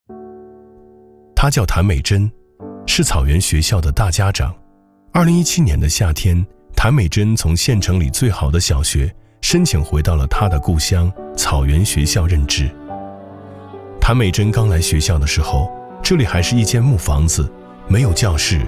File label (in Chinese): 男 走心 唯美温馨; 海普诺凯